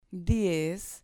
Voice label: "diez", Spanish